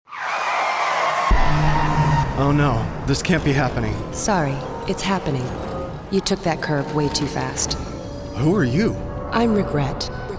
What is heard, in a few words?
Voiceover; ODOT; Regret